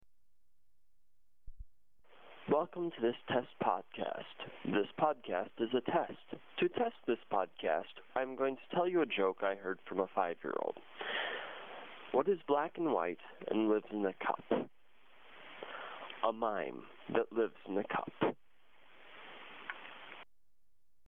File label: test podcast